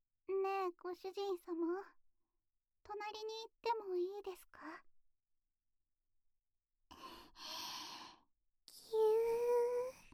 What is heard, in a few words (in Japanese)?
フリートーク